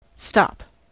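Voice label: "stop", US English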